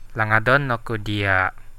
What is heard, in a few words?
i miss you, central dusun